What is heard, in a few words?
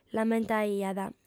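"l'ammentaiat", female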